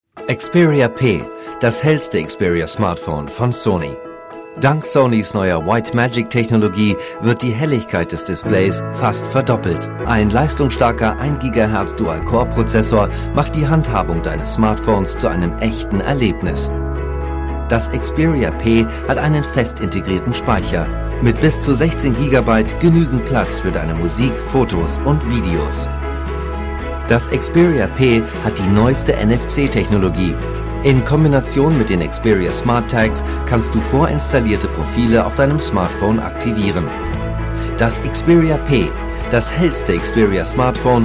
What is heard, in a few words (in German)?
Werbung Neutral